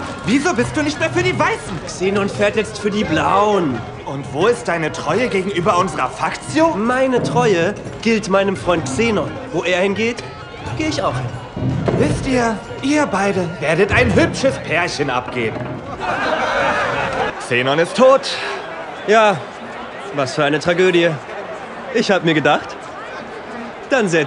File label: Lip-Sync (Synchron), German, English NEUTRAL; TATD - Those About to Die - Rolle: Sapo (Folge 9)